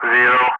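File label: Speech, English, Digits, 3 continue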